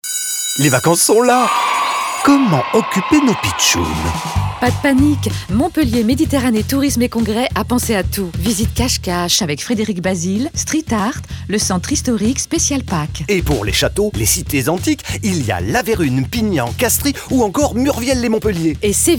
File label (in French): spot radio; montpellier; fb herault du 4 au 14 avril